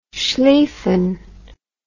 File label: "schlieffen", English